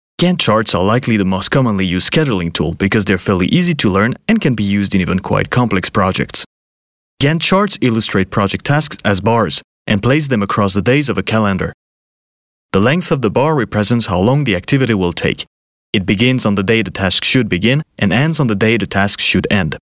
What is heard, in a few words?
Informative (EN)